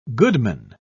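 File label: "goodman", British English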